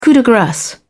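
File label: "coup de grace", British English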